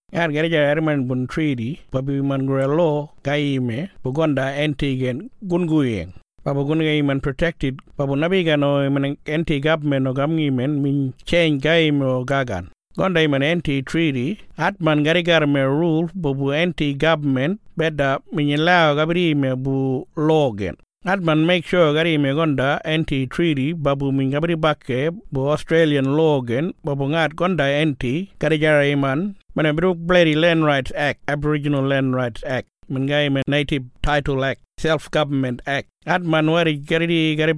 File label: Kunwinjku